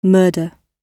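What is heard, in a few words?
"murder", British English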